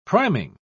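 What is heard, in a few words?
"priming", British English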